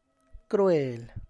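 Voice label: cruel, Tlacochahuaya Zapotec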